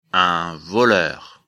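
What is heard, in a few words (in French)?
"voleur", French; afrikalan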